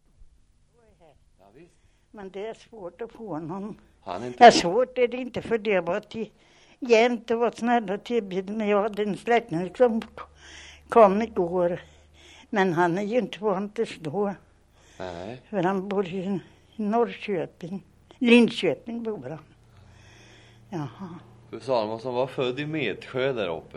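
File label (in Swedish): Vårdsberg Östergötland, dialekter; Folkminnen; Svenska sagor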